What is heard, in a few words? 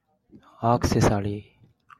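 "accessory", Japanese, male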